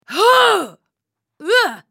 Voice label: dying, en US; Sejuani; Character Voices - English